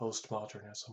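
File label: "postmodernism", US English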